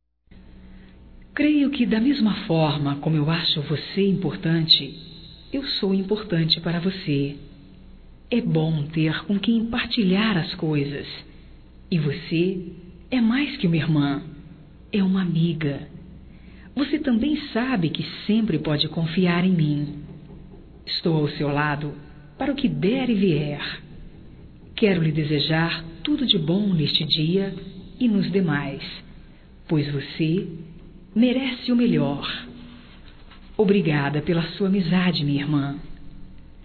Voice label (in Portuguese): IRMA, Telemensagens, Aniversarios, fem; Telemensagens 2017